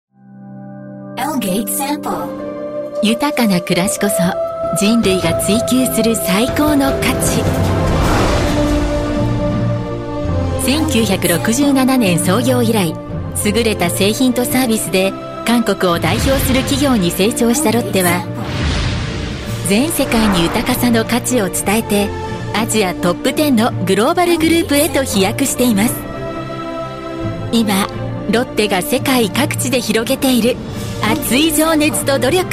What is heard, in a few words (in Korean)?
일본 여 기본톤 홍보; 롯데그룹